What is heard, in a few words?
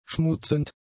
"schmutzend", German; adjectives